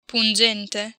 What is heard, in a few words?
"pungente", Italian